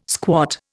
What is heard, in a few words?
"squat", Indian